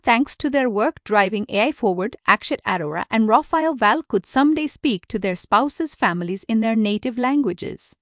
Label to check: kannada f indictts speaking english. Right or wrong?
Right